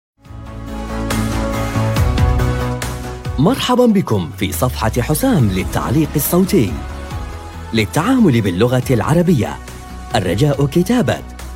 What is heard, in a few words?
MSA Bahrini, msa voiceover